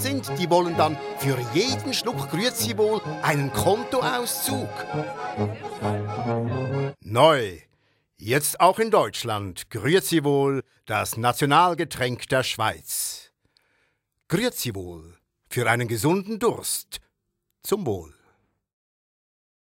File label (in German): Werbung; Grüeziwohl; CH,D